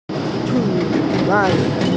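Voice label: "tu2an4", Mandarin Chinese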